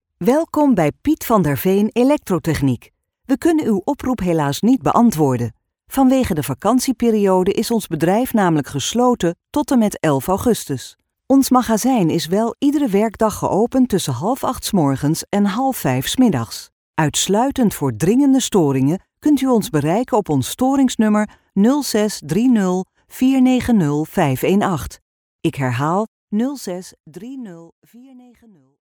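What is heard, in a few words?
Dutch, Netherlands, female, voice over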